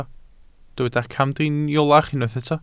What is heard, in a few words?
"camdriniolach", Welsh